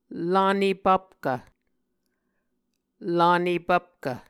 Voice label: "lane pvpkv", Muscogee

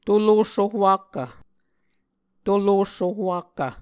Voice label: "tolos sohwakkv", Muscogee

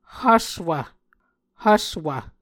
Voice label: "hvswv", Muscogee

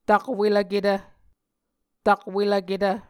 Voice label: "takwelvketv", Muscogee

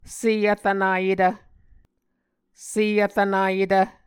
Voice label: "sevrvnayetv", Muscogee

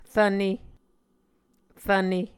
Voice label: "rvne", Muscogee

